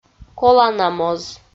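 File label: "коланамоз", Komi, female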